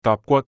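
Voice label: "dap6 gwat1", Cantonese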